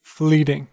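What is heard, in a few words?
"fleeting", US English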